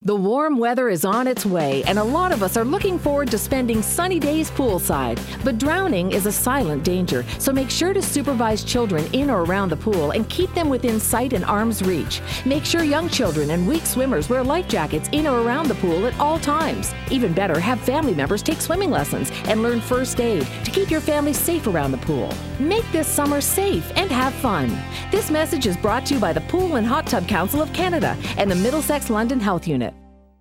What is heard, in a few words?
Public Service Announcement; prepared by the Western Ontario Chapter. PHTCC May 2011